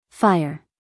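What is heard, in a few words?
"fire", American English, female; everyone-can-use-english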